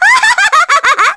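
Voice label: Vox Skill, Korean; Miruru; kingsraid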